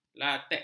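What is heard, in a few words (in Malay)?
"lateks", Malay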